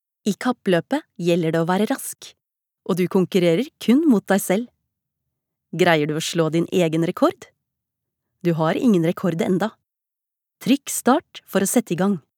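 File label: informative, norwegian, female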